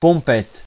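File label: "pompette", French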